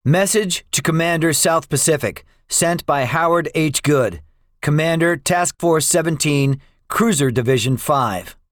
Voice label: debriefing, english; guadalcanal; War Thunder